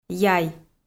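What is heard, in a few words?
"яй", Komi-Zyrian, female; kpv audio dict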